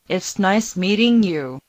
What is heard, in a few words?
Mandarin Conversation